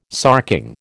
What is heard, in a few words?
"sarking", American